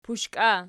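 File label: "pushka" nominative, Archi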